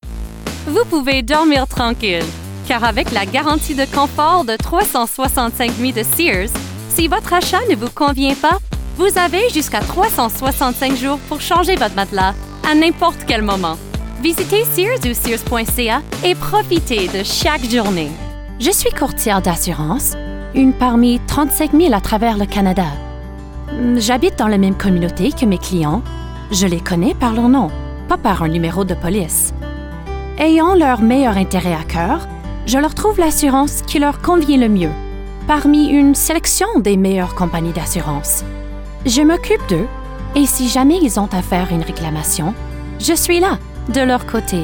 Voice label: commercial French; TaDa